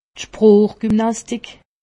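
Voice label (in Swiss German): "spoochgymnastik", Haut Rhin; medecine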